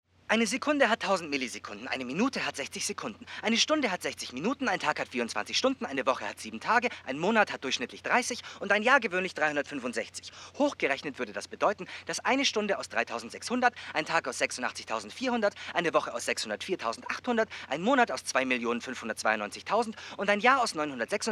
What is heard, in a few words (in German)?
Sprachproben Nerd